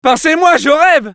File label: jeers, fr; Pincez-moi, je rêve !; Réponses du Scout